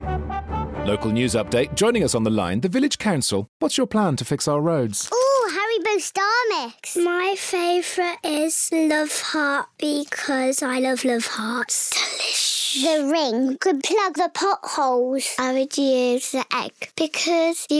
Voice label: Radio; Haribo Starmix 30 Radio